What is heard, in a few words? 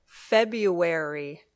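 "February", English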